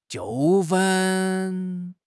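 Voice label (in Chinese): "纠纷", Chinese; HSK; HSK6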